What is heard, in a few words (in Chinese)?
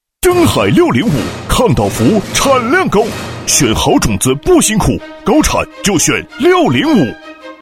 男 广告